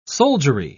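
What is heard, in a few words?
"soldiery", English, British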